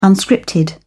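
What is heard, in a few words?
"unscripted", British English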